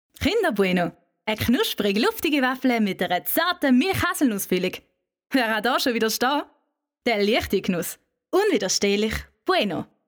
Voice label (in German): Werbung Schweizerdeutsch